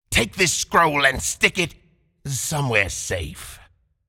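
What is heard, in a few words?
taunt, English; Ryze; Character Voices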